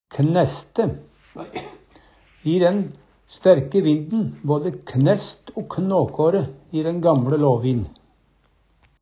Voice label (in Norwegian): knæste, Numedalsmål; Verb; I den kraftige vinden både knast o knåkå ræ i den gamLe låvin.